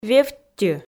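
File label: "вевттьы", Komi, female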